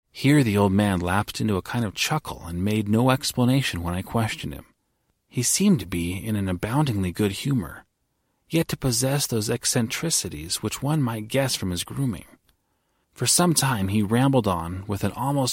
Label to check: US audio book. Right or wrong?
Right